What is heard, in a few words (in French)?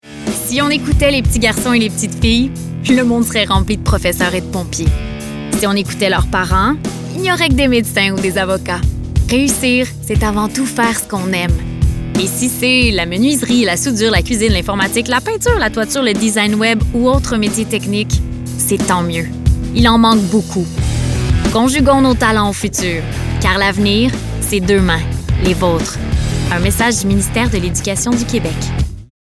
pub annonceur fr normatif amical avec sourire affirme determine; carriere avenir; adulte